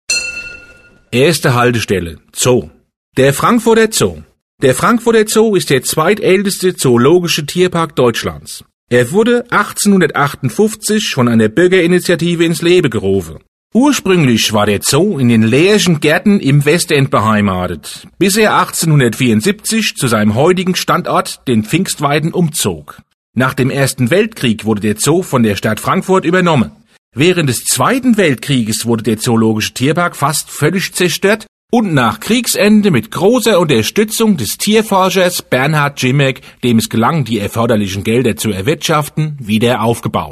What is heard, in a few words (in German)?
Zoo, Hessisch; Entlang der Strecke; Ebbelex